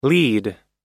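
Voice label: "lead", spoken word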